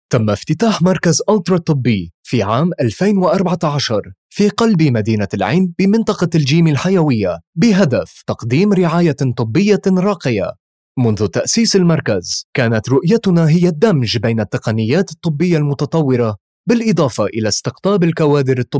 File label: arabic narration